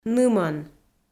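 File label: "нымӧн", Komi, female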